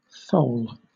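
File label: "thole", British; pronunciations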